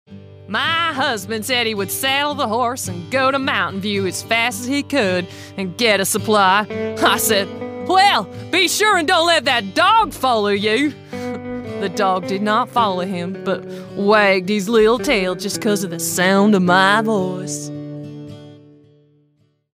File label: character accent, voiceover